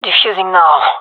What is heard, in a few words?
defusing, gendermerie fem; vo